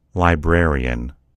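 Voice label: "librarian", US English; gcompris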